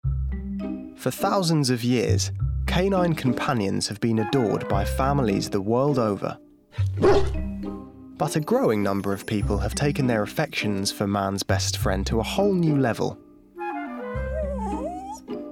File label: Documentary; Human Pups